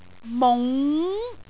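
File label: "mung2", Cantonese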